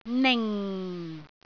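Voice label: "ning4", Cantonese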